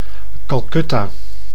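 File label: "Calcutta", Dutch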